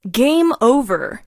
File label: Game Over, announcer male